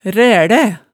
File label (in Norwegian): "rælæ", Hemsedal